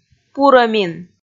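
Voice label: "пурӧмин", Komi, female; kpv audio dict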